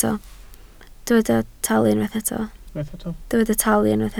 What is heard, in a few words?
"talu", Welsh